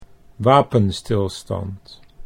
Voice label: "wapenstilstand", Dutch